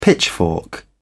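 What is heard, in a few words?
"pitchfork", British English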